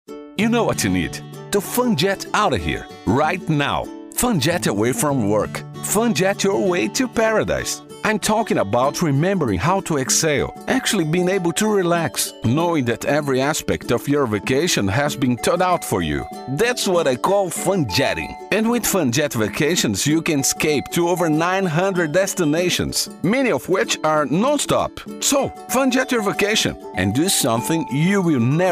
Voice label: brazilian portuguese english